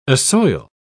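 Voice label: "assoil", British English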